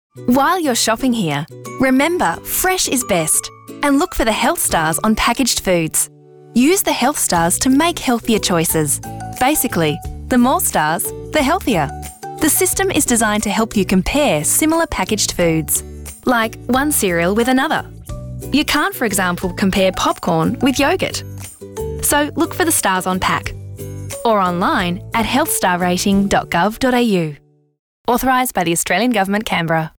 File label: Straight Read; KAMvoices